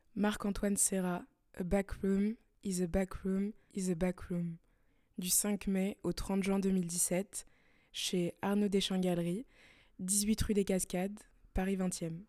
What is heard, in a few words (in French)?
français